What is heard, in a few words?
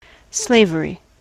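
"slavery", US English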